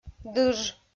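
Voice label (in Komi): "дыж", Komi, female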